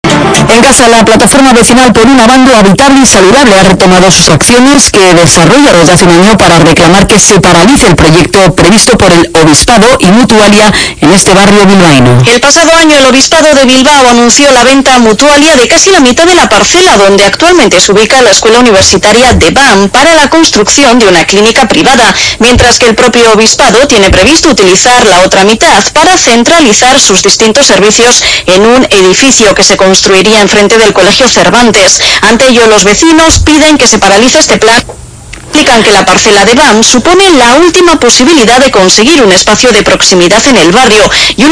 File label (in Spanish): radio nervion noticias; abando habitable